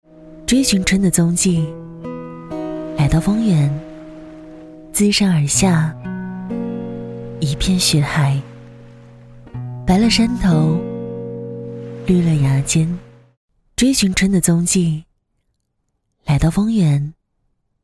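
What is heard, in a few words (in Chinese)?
女国大音-独白; 追寻春的踪迹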